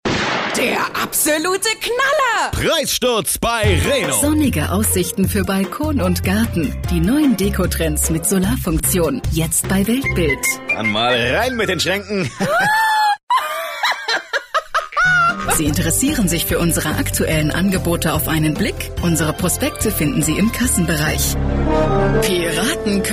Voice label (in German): Werbung Variabel